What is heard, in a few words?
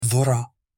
corn in arabic